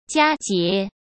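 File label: "佳节", Mandarin Chinese; chinese-flashcards; hsk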